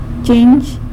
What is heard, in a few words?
"chinzh" bedbug; san lucas quiavini